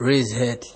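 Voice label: "raisehead", Nigerian Pidgin, male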